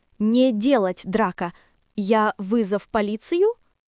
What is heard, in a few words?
Russian, russian phrases insults; ロシア語のネガティブなフレーズ